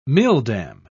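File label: "milldam", British English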